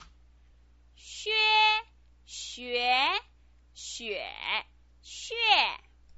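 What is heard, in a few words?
"xue", Mandarin Chinese pinyin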